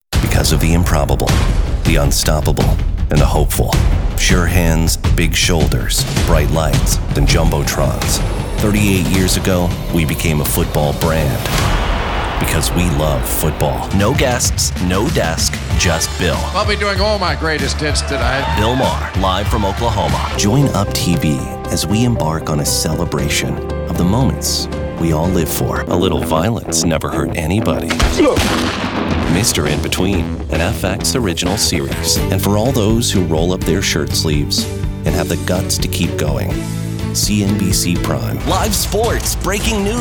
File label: promo men